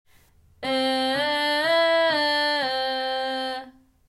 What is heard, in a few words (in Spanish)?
Boca de asco a ae